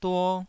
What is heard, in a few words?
"duo2", Taiwanese